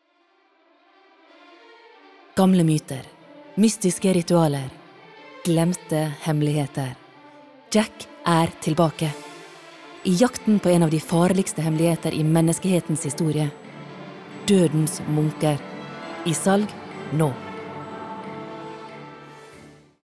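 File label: Norwegian movie trailer sunnmore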